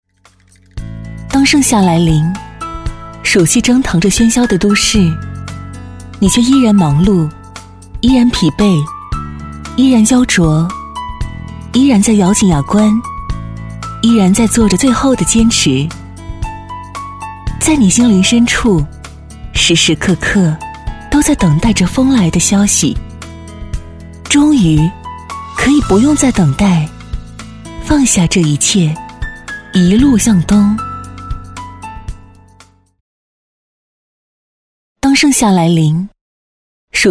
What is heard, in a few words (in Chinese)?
A女 微电影走心旁白; 蓝色嵊泗